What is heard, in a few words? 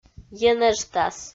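"енэжтас", Komi, female; audio dict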